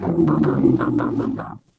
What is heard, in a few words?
impatient